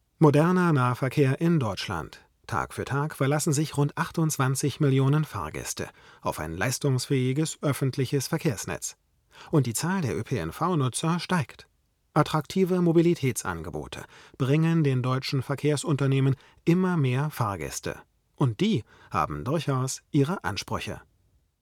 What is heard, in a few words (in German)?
u87 weiter weg, sprecherraum mikrofonvergleich